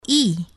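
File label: "e", English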